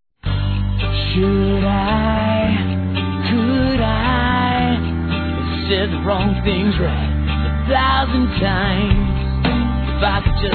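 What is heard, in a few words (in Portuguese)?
Masculino, Telemensagens; Dia Dos namorados; AGRAD A MSG; Telemensagens 2017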